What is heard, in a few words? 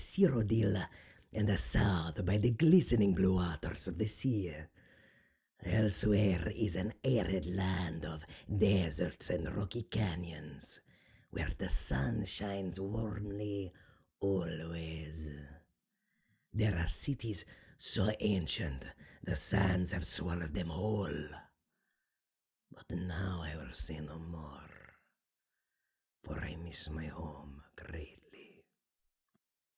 khajiit, voice auditions